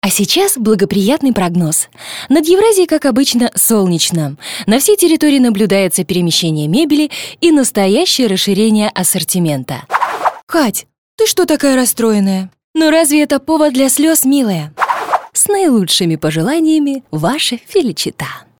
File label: RUS